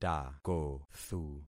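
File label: "takothu", concatenated syllables; Affixing experiment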